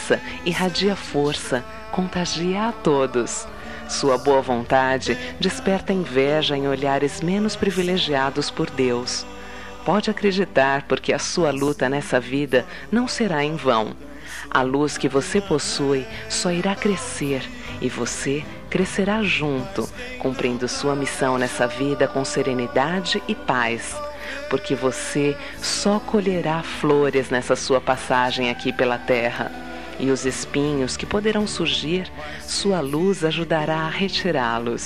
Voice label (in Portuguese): Amizade Mensagem pra Amizade, F